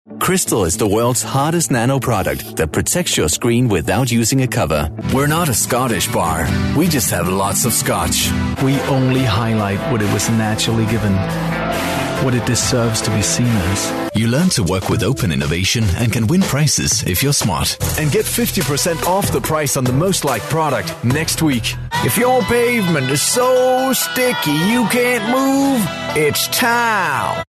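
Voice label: Danish, male, English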